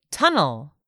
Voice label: "tunnel", English word; 3000 words